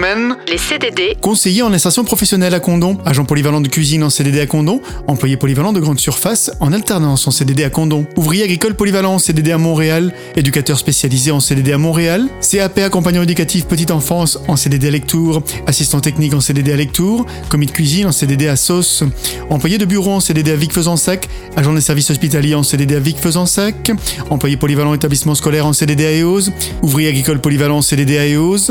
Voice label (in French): PUB FRANCE TRAVAIL CDD